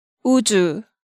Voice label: "Uju", Korean